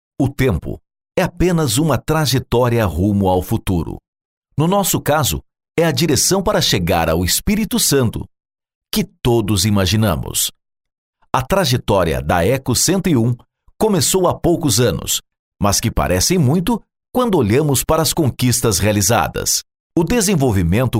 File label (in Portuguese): INSTITUCIONAL